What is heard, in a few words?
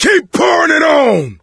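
battlecry tank, male; Left 4 Dead 2; coach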